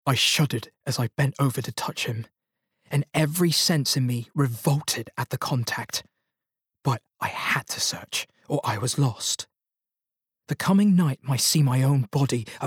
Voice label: Bookread; Dracula; (UK)

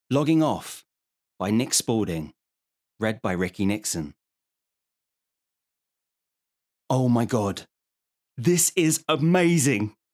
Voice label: Bookread

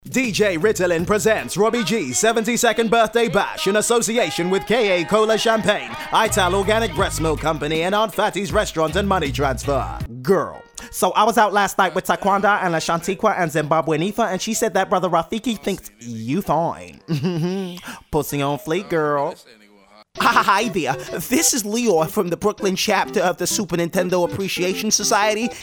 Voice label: Character

